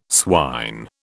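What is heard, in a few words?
"swine", British English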